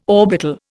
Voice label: "orbital", Indian

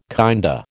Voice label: "kinda", American

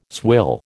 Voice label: "swill", American

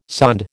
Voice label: "sonde", American

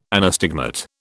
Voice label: "anastigmat", British

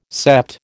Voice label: "sept", American

